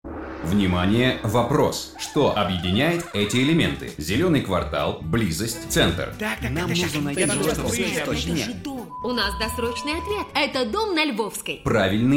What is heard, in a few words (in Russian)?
radioreklama; dvizhenie multimedia; dom na lvovskoy; megalit i doverie